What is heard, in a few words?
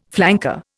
"flanker", Indian